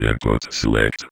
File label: Vocoder Phrases; Vengeance Samples